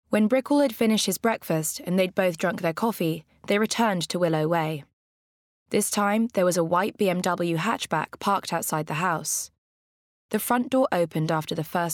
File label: Bookread; Lola Is Missing